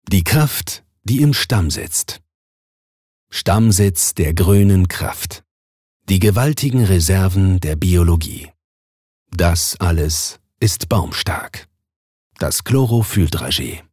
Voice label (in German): Werbung Kraftvoll